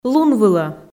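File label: "лунвылӧ", Komi, female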